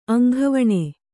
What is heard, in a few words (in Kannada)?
"ಅಂಗವಾನು", Kannada